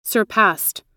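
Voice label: "surpassed", American English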